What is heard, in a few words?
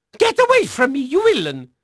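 Dutch male, voice; Pirates Of The Caribbean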